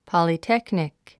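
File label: "polytechnic", English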